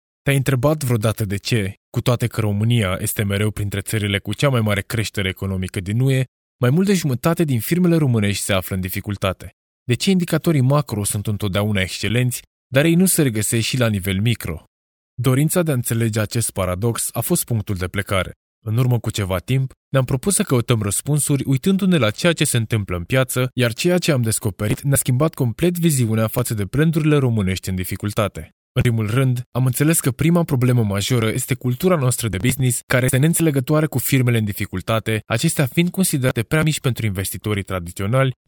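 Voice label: Romanian male; lektoring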